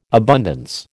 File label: "abundance", American